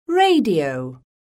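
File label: "RADIO", English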